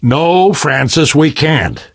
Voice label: seeing big ship, survivor voice; Left 4 Dead; Source Engine; video games